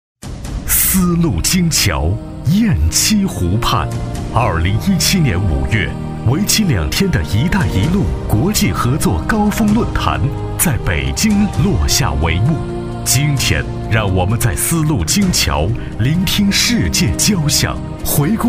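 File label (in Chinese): 男国大音-政府宣传片; 丝路金桥 一带一路国际合作高峰论坛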